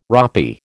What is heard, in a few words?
"ropy", American